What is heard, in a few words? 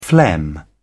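"phlegm", British English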